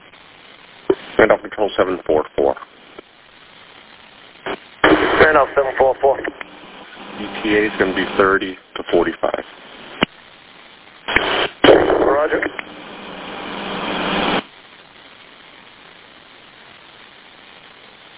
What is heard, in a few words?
Police Radio